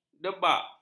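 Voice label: "dabak", Malay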